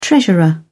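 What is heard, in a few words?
"treasurer", British English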